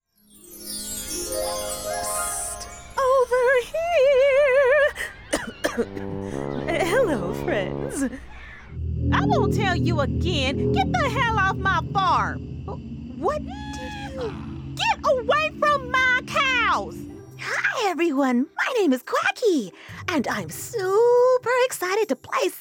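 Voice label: Character, Female Voice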